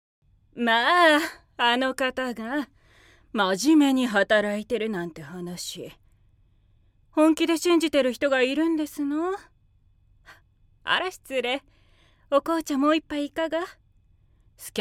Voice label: 優しいお母さん

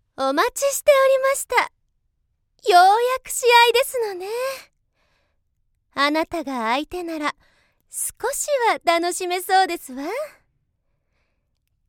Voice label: 強気なお嬢様

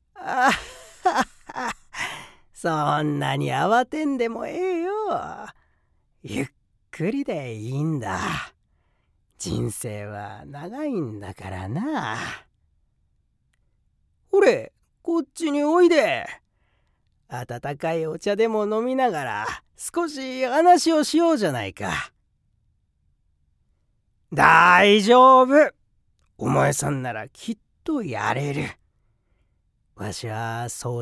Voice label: お爺さん

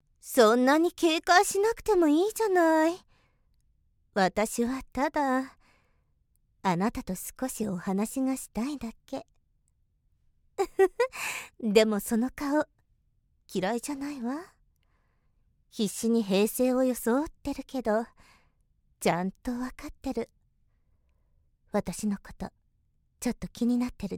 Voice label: セクシーな女性

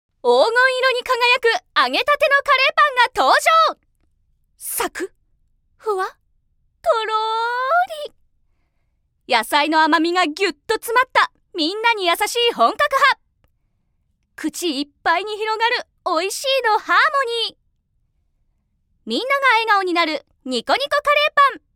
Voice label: CMナレーション

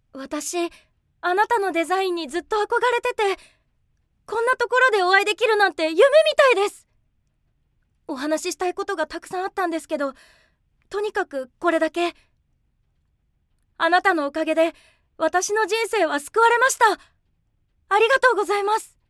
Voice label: 外画 20代 純粋な女性

